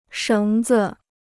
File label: "绳子", Chinese